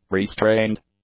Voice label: "restrained", English; adjectives

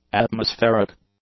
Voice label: "atmospheric", English; adjectives

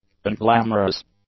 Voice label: "unglamorous", english; adjectives

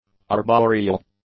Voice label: "arboreal", English; adjectives

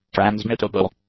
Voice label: "transmittable", English; adjectives

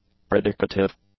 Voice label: "predicative", english; adjectives

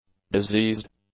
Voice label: "diseased", English; adjectives

